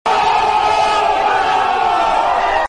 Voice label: ohhh, sound effect, meme